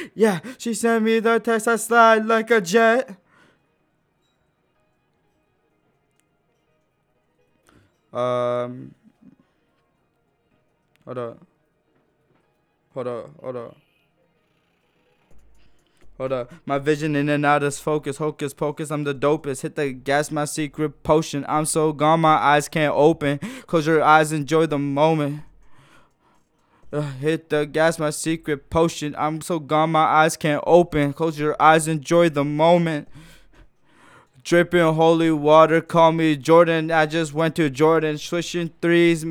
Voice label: recordin Mic Input; flstudio; Vocals